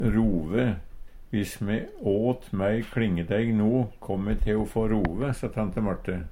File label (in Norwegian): "rove", Numedalsmål